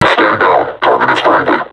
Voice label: taunt, vo combine suppressor; Half Life Alyx